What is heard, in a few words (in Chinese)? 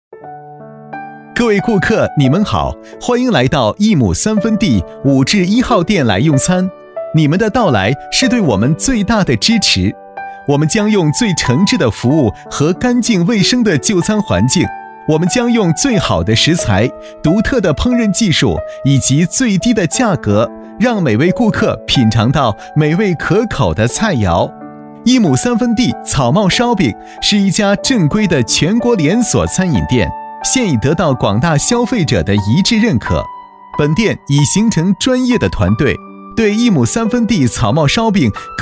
男 广告 餐厅迎宾 年轻愉悦